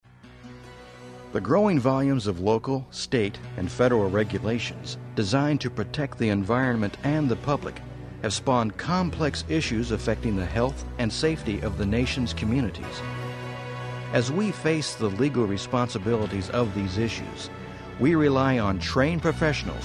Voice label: narration